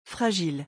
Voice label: "fragile", French